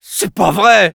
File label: negative vocalization, fr; Scout